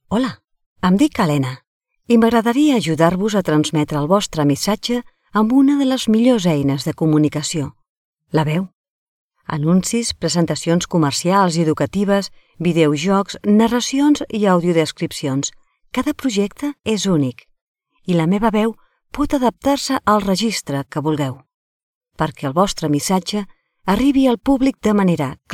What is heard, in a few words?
Intro Catalan; voice